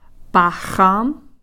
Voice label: "paxam-", come (from or with)